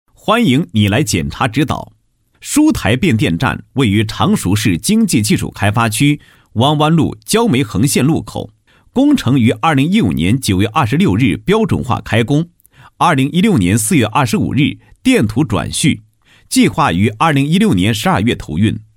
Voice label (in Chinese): 男; 电力企业; 书台变电站配音